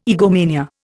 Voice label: "egomania", Indian